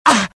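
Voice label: death, dialogue generic Italian; mohaa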